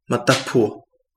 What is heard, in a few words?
pond, Kapingamarangi